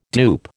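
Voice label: "dupe", American English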